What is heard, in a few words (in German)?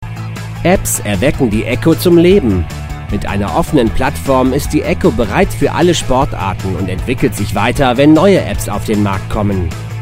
echo sportuhr